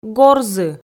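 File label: "горзы", Komi, female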